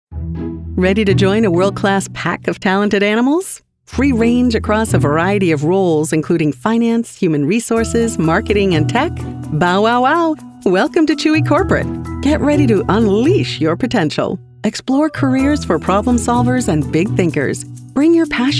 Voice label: E-Learning